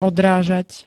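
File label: "odrážať", Slovak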